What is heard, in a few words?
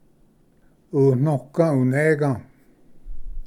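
"unoga unega", Cherokee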